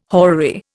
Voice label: "horary", Indian